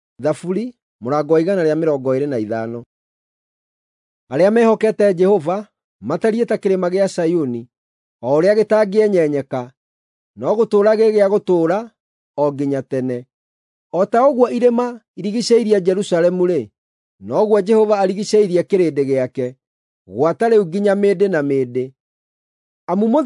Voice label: psalms, gikuyu drama